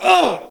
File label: human hit; wesnoth